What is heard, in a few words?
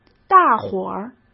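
"大伙儿" Mandarin Chinese; chinese flashcards; hsk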